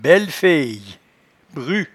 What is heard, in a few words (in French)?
"bellefeye", patois jura